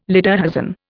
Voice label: "lederhosen", Indian